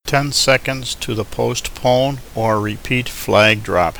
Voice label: 10 sec post or repeat drop, Male English